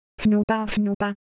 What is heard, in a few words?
Japanese Color Visual, Ideo Phones